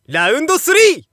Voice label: Narration ROUND

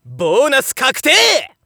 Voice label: Narration GAMBLE Bonus Get

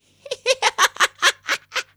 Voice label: voice berserk

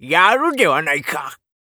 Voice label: oldman damage, voice

